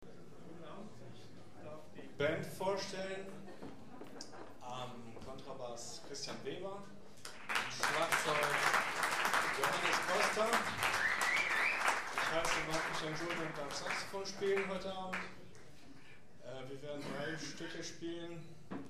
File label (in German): Ansage; Jazz-Club Trier e.V. (JCT); 11. Jazzgipfel (Tufa, Trier)